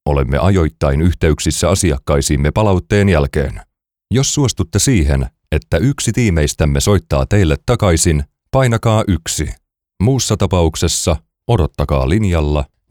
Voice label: Phone Systems IVR On Hold Messages